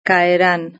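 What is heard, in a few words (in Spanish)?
"caerán", Spanish; verb-conjugator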